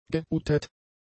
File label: "geoutet", German; adjectives